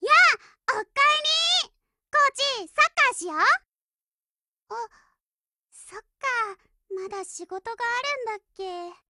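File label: HELLO, Japanese; Lusa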